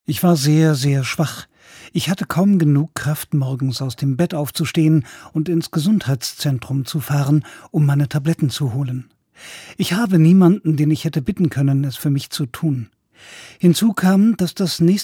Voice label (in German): covid19 betroffenen bericht